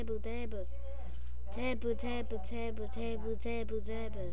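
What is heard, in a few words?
"jab", spoken word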